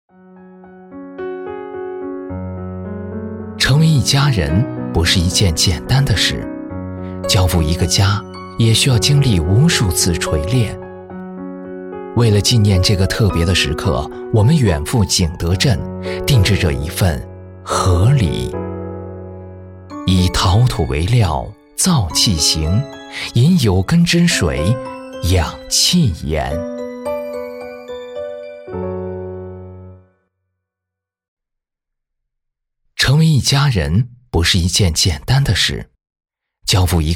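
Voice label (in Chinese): 解说精致典雅舒缓中国风; 和礼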